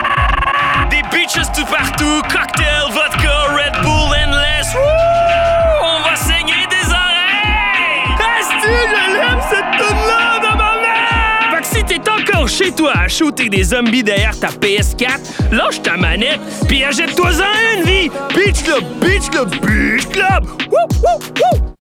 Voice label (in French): humour fr qc joual amical avec sourire comedie promo adolescent; beach club